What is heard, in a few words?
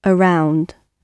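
"around", British English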